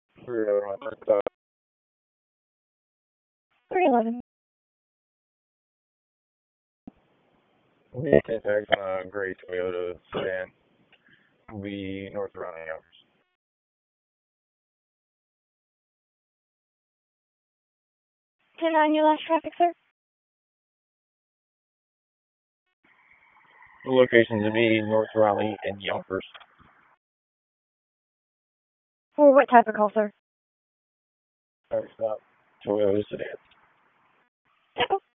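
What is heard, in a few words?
Police Northeast District Dispatch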